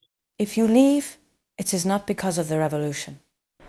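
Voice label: Voice Acting Spanish Female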